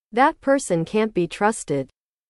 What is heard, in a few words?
english